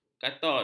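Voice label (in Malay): "katod", Malay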